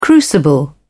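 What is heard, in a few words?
"crucible", British English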